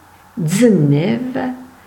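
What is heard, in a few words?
"Dzenèva", Franco-Provençal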